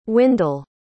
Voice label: "windell", pronounce

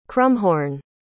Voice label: "krummhorn", pronounce

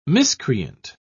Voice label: "miscreant", pronounce

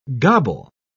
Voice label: "gobble", pronounce

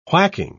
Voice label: "whacking", pronounce

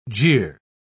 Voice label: "jeer", pronounce

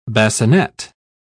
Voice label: "bassinet", pronounce

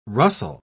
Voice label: "rustle", pronounce

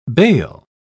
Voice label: "bale", pronounce

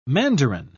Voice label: "mandarin", pronounce

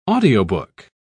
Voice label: "audiobook"

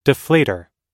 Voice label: "deflator", pronounce

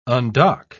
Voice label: "undock", pronounce

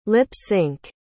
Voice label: "lip-synch", pronounce

